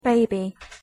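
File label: "baby", English; pronunciation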